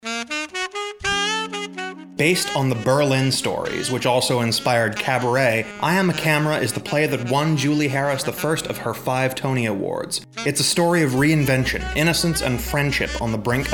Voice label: Radio Spot